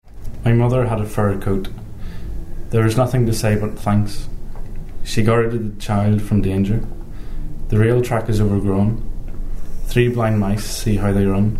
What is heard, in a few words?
sentences, dialects ni